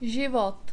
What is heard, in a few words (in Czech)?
zivot, czech